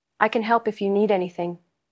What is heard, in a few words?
Voice Acting es